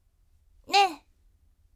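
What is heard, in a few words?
voice nee bright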